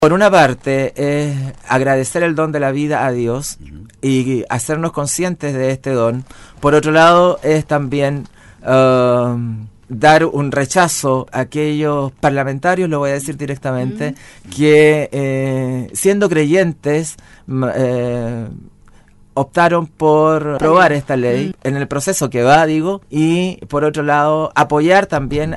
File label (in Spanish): cuña cura párroco, radio